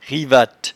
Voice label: "rivatte", patois jura

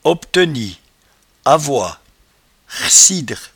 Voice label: "obteni", patois jura

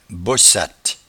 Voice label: "bossate", patois jura

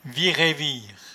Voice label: "virevire", patois jura

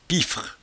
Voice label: "pifre", patois jura

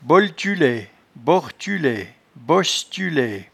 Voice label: "boltiulaie", patois jura

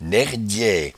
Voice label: "nairdyaie", patois jura

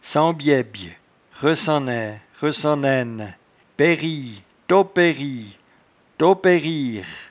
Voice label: "sembyabye", patois jura

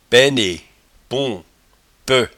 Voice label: "painepon", patois jura

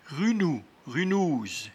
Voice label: "runnou", patois jura

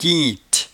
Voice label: "quinte", patois jura

